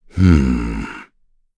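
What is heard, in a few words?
Vox Think, voices heroes English; kingsraid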